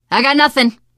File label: area clear, teen girl; survivor voice